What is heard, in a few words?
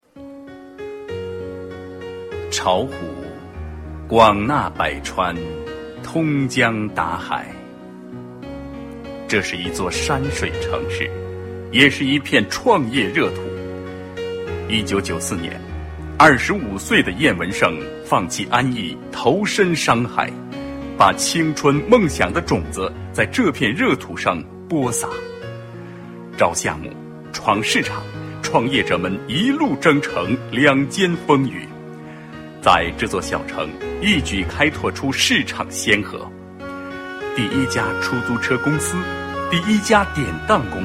boy, Chinese; enterprize; yangzhong